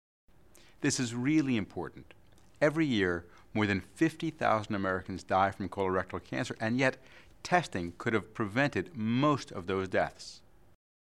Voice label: English; Colorectal Cancer